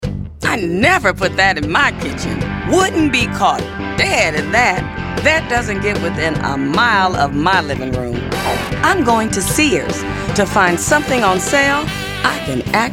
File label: voice over